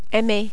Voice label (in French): "Aime", French